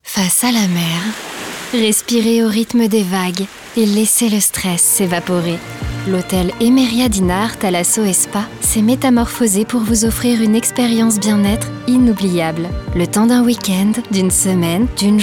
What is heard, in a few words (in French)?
SPOT DIFFUSION LOCAL; voix-off; EMERIA